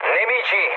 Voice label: enemy detected mood low, speech it; War Thunder

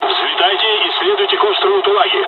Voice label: mission fly, dialogs russian; War Thunder

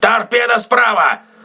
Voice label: voice message torpedo right, crew ships russian

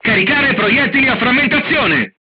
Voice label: load Frag, crew tanks italian; War Thunder

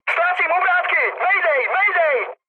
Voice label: damaged engine, speech cze, mood med; War Thunder

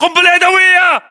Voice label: inform incoming grenade, english battlechatter

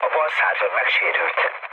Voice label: left wing, mood low, speech hun; War Thunder

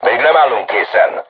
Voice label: voice message art reloading, crew tanks hungarian

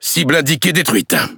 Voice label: FLAGMANS SUCCESS, FR; World Of Warships

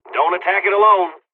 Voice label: dlg, hungarian; War Thunder

